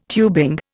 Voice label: "tubing", Indian

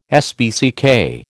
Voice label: "SPCK", American

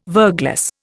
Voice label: "verglas", Indian

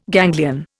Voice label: "ganglion", Indian

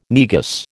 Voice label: "Negus", American

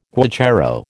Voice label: "guacharo", American

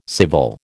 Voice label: "civil", American

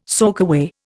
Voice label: "soakaway", Indian

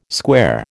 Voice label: "square", American

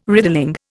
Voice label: "riddling", Indian

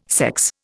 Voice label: "sex", Indian

